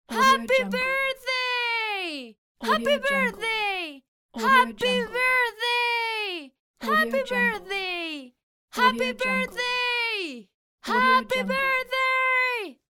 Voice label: female saying happy birthday pack